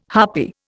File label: "harpy", Indian